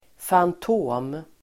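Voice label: "fantom", Swedish; Uttal: [fant'å:m]; whizz, ace; mycket skicklig person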